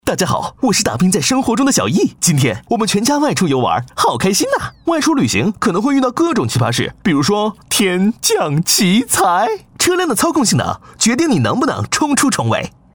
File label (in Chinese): 男 汽车 搞怪; 优质全能; 伊泽汽车